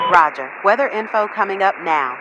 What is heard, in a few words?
Radio atc Weather Update